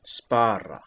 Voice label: "spara", Icelandic

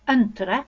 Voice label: "antra", Lithuanian

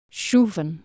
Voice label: "scufan", Anglo Saxon

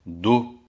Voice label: "du", Digor

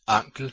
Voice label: "ankel", Old Saxon

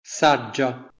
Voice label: "sagja", Proto Germanic

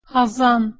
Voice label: "hazan", Proto-Germanic

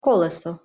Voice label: "koleso", Ukrainian

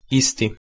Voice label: "isti", Proto Germanic

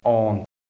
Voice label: "an" Anglo Saxon

